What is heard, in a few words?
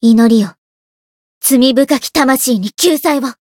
chant, jp